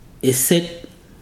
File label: "ancient days", Ibibio